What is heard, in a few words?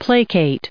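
"placate", us English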